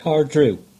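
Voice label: "Cardrew", English; place-names; Caerdro 1311 (Gover, 1948)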